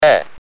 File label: "F", UK Speech